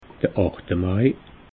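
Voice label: "acht mai", Alsatian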